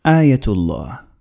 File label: "Ayatollah" Arabic